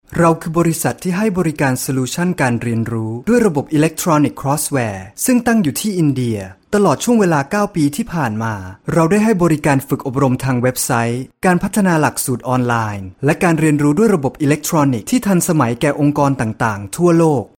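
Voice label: Thai, e-learning; GoLocalise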